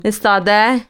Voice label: Dari Standing

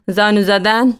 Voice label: "Kneeling", Dari

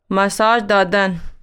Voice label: Dari Massage

